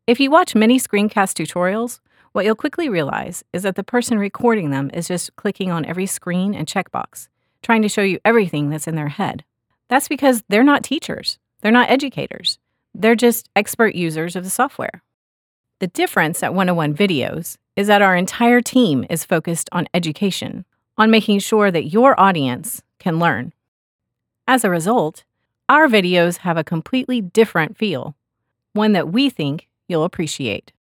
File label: Voiceover